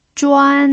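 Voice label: "zhuan1", Mandarin Chinese pinyin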